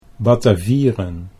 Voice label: "Batavieren", Dutch